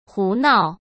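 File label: "胡闹", Mandarin Chinese; hsk; chinese-flashcards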